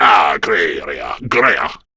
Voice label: Patrol, Male; Warframe; Grineer Male B; Battle Barks